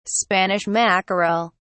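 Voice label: "spanish mackerel", English, British English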